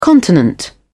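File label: "continent", British English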